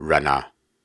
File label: Viking Vocal Syllables and Imaginary Words, Hard